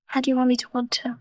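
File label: Voice Acting es, female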